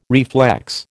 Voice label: "reflex", American